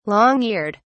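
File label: "long eared", British English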